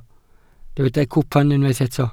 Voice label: "cwpan", Welsh